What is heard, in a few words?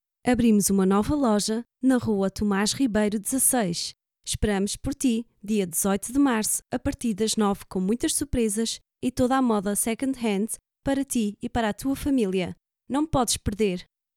PORTUGUESE